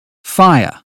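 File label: "fire", British phonetics; 英语音标发音（剑桥版）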